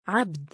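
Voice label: "3abd" male, Arabic; عَبْد; アブド・◯◯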